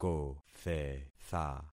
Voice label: "kothetha", concat syllables; Affixing experiment

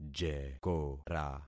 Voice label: "jekora", concat syllables; Affixing experiment

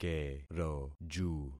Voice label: "keroju", concat syllables; Affixing experiment

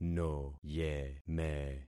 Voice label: "noyeme", concat syllables; Affixing experiment

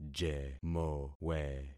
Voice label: "jemowe", concat syllables; Affixing experiment

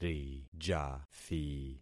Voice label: "rijathi", concat syllables; Affixing experiment

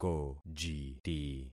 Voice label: "kojiti", concat syllables; Affixing experiment

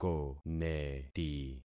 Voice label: "koneti", concat syllables; Affixing experiment

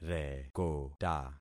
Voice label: "rekota", concat syllables; Affixing experiment

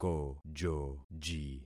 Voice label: "kojoji", concat syllables; Affixing experiment

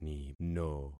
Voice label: "nino", concat syllables; Affixing experiment

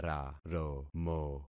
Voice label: "raromo", concat syllables; Affixing experiment

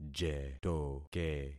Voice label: "jetoke", concat syllables; Affixing experiment

